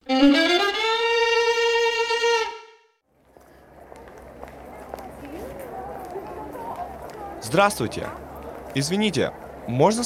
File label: Russian dialog